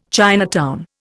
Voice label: "Chinatown", Indian

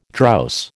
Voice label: "drowse", American

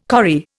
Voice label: "corrie", Indian

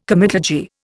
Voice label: "comitadji", Indian

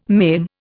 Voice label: "maim", Indian

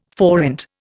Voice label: "forint", Indian

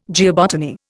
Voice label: "geobotany", Indian

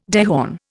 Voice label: "dehorn", Indian

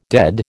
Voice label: "dead", American

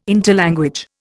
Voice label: "interlanguage", Indian

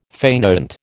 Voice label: "fainÂant", American

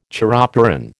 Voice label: "chiropteran", American

